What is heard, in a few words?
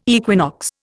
"equinox", Indian